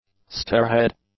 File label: "stairhead", english; nouns